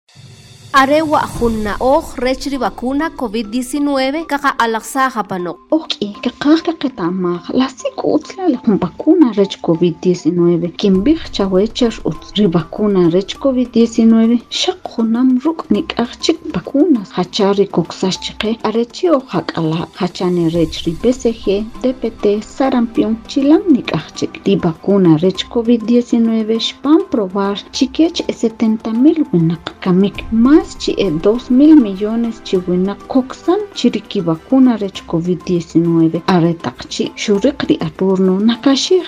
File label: SPOT KICHE